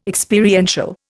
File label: "Experiential", Indian